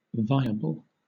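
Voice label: "viable", British English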